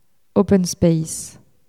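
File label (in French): "open space", French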